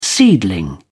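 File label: "seedling", British English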